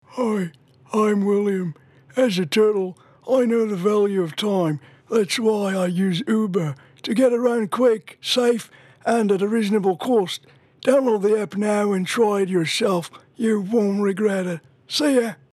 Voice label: english australian commercial